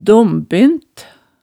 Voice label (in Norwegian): "dombent", hemsedalsmaalet tale